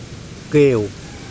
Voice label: mast, mokilese